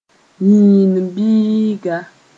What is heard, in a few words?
"yĩin-biiga", Mooré